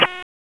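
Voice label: Raleigh Police North District Tactical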